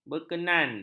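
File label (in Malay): "berkenan", Malay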